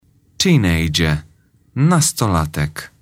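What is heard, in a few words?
"teenager", English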